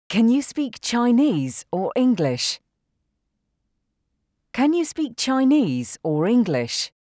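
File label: Can you speak Chinese English, English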